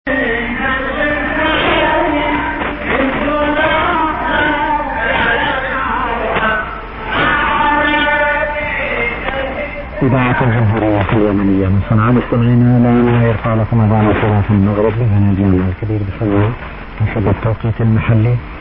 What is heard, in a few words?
RADIO YEMEN SIGN OFF 6135 KHZ; 1500 UTC